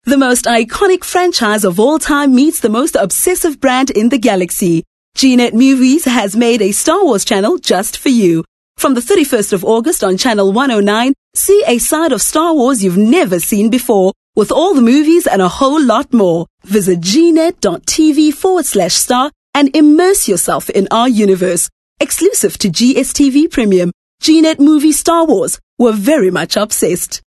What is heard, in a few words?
English Skill Promo